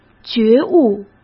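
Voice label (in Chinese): "觉悟", Mandarin Chinese; chinese-flashcards; hsk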